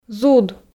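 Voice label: "зуд", Komi, female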